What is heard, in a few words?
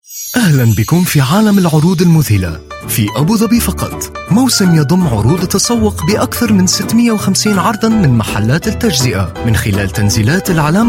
arabic voice over male commercial